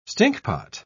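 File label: "stinkpot", English, British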